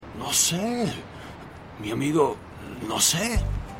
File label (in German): Alter Mann; Lucifer